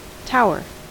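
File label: "tower", American English; us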